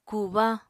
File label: "kubañ", Apatani